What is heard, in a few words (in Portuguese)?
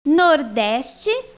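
"nordeste", Portuguese; GEOGRAFIA; bussola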